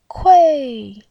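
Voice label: "kui4", Mandarin Chinese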